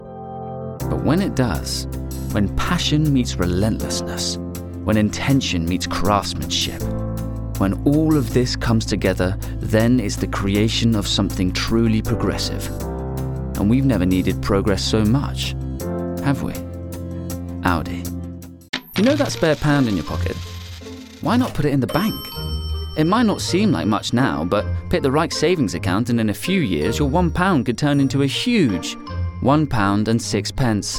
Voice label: English (UK), Male